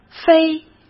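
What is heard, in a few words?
"非", Mandarin Chinese; chinese-flashcards; hsk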